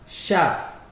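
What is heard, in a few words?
"sharp", Nigerian Pidgin, male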